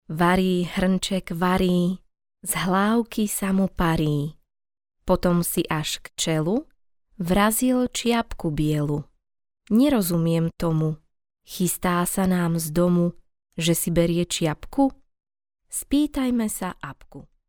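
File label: Slovak, female, narration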